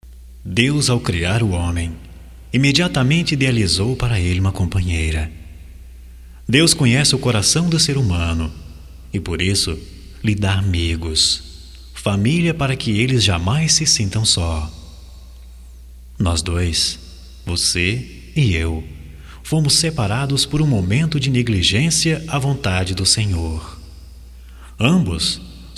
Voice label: RECONCILIACAO AMIZADE M, Só Voz, masc; Telemensagens; Telemensagens 2017

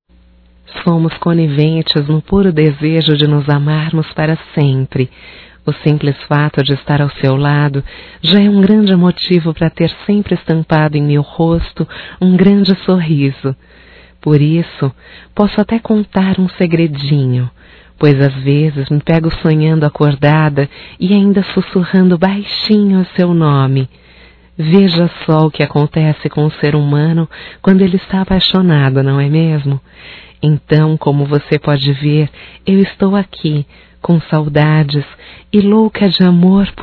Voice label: Romântico Feminino

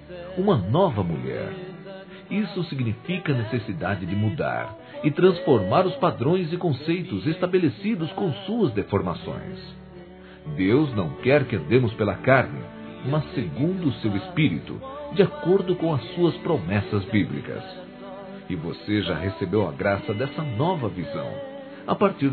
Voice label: FOI BATIZADA F, Telemensagens Gospel fem; Telemensagens 2017

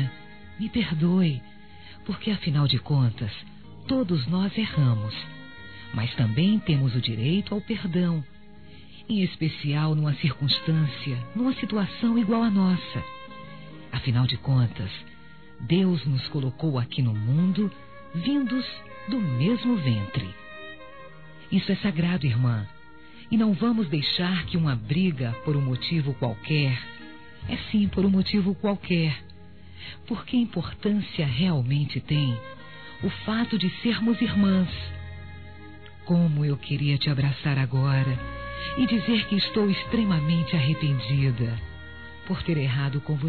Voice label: desculpa minha irma f; Telemensagens; Desculpas; fem; Telemensagens 2017

